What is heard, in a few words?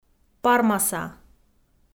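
"пармаса", Komi, female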